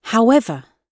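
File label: "however", British English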